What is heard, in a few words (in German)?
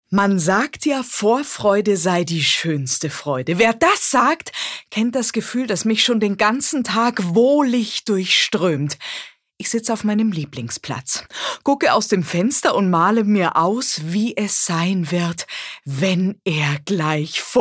Euphorisch; Vorfreude